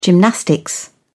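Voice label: "gymnastics", British English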